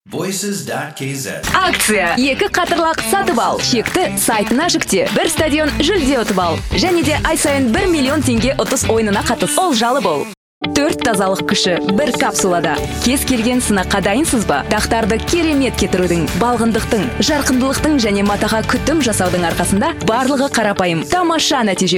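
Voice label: kazakh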